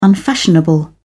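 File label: "unfashionable", English, uk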